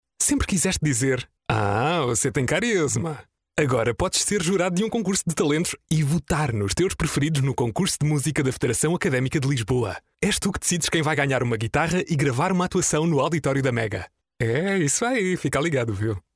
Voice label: male portuguese voice over talent upbeat, portugal